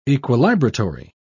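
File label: "equilibratory", British English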